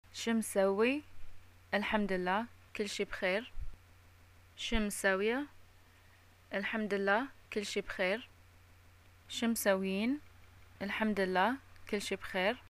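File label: greeting how r u, Bahraini arabic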